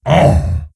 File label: Wound, Creature; Prophet Tharonja